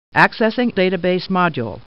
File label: Computer Voice; Star Trek